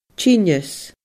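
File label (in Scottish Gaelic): "tinneas", Scottish Gaelic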